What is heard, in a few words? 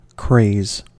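"craze", American English; pronunciations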